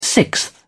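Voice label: "sixth", British English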